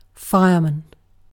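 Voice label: "fireman", British English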